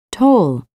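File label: "toll", English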